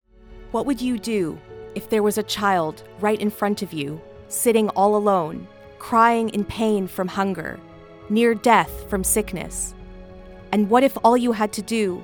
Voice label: female english canadian neutral north american accent charity; appeal; unicef